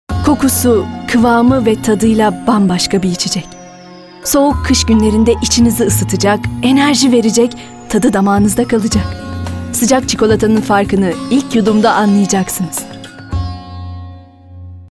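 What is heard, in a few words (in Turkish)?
sicak samimi, seslendirme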